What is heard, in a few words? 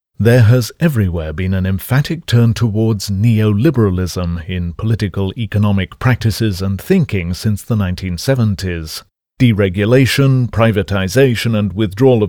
Audio books, Voice over demos, EN; England